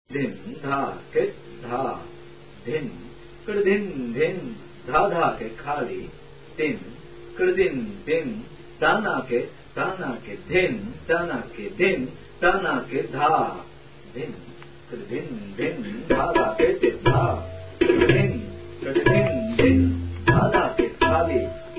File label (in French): eclats de voix